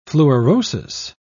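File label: "fluorosis", British English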